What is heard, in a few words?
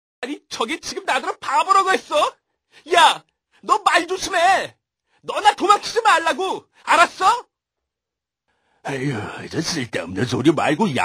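Korean male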